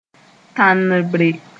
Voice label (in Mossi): "tãnbre", moore